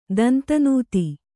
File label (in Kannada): "ದಂತನೂತಿ", Kannada; danta nūti; noun